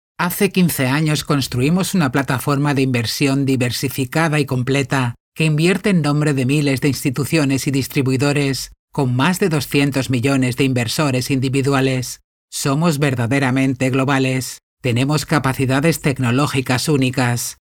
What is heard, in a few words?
locutora spanish voiceover